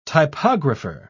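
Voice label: "typographer", British English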